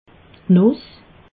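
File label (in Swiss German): "nuss", Alsatian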